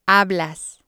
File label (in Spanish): "hablas", spanish